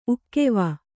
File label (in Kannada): "ಉಕ್ಕೆವ", Kannada